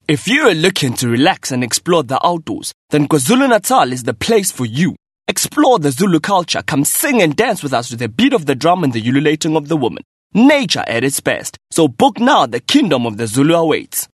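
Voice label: english South African male narration; voice demos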